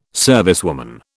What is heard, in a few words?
"servicewoman", British